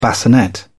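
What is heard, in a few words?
"bassinet", British English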